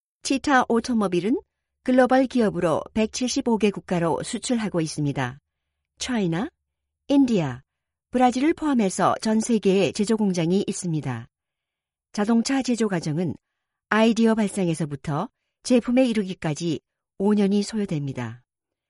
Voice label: Korean F Corporate; korean accent